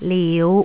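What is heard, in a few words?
"liu5", Cantonese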